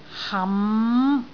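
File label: "ham5", Cantonese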